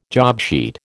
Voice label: "jobsheet", American